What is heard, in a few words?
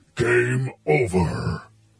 battle narrator "game over"